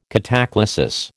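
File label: "cataclasis", American English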